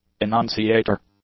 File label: "enunciator", English